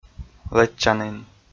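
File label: "лэччанін", Komi, male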